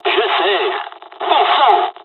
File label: dialog, dialogs french; War Thunder; midway